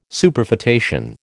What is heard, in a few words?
"Superfetation", American